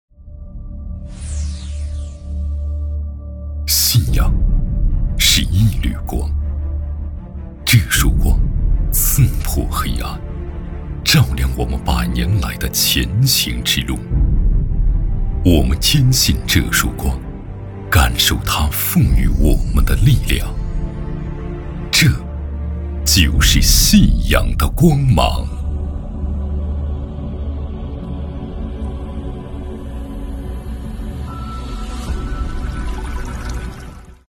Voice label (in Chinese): 男 广告 独白 片 深沉走心; 信仰光